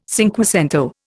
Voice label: "cinquecento", Indian

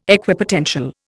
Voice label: "Equipotential", Indian

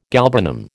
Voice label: "galbanum", American

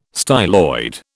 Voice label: "styloid", British

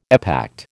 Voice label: "epact", American

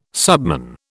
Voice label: "subman", British

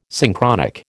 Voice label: "Synchronic", American

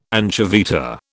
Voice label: "anchoveta", British

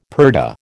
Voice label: "purdah", American

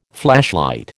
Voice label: "flashlight", American

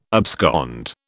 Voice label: "abscond", British

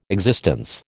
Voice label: "existence", American

